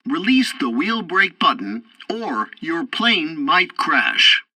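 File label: landing breaks warning, tutorial, french; War Thunder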